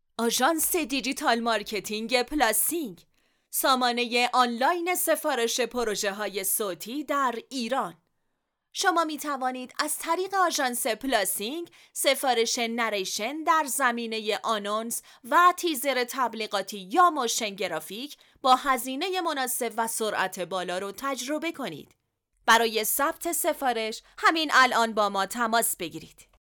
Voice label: narrator